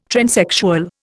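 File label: "transsexual", Indian English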